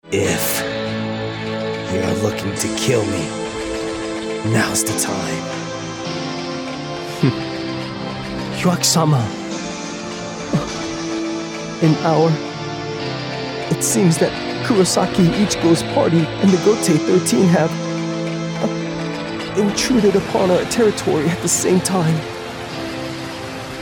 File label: Male Character Anime